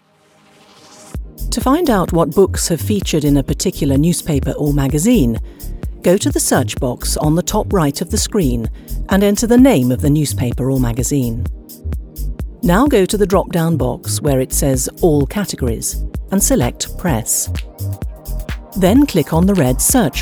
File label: Corporate Instructions